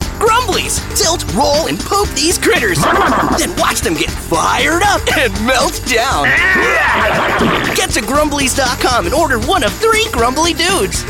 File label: Excited English